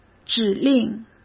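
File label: "指令", Mandarin Chinese; hsk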